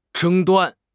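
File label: "争端", Mandarin Chinese; HSK6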